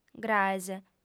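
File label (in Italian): "graes", lingua sarda, female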